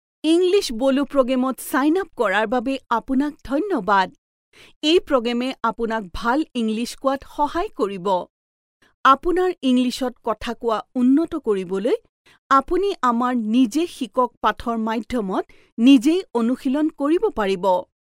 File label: Assamese Female